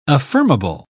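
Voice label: "affirmable", English, uk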